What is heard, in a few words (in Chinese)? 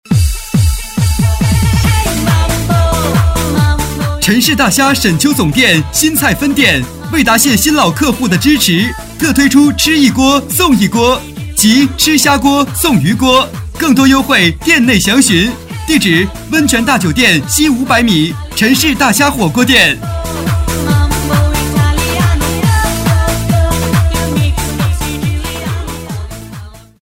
促销; 陈氏大虾吃一锅送一锅